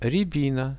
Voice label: "riabina", Russian; рябина; plants; RUSSIAN VISUAL DICTIONARY